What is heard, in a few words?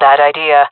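disagree, female; vo; agents; jungle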